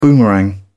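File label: "boomerang", British English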